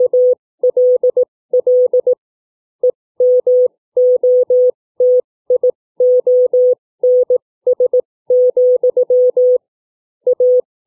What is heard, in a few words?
book reading